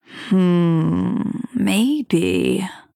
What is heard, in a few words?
Female Night Elf Thinking